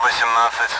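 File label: Speech Sound Russian Common AWACS Numbers; DCS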